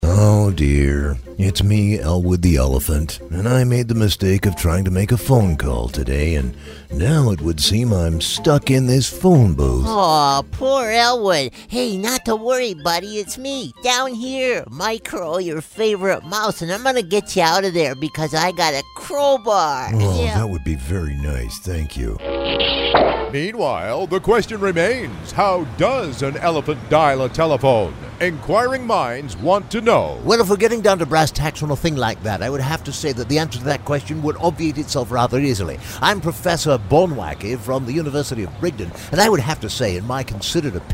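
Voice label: Character Male; TaDa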